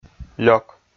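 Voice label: "лёк", Komi, male; kpv audio dict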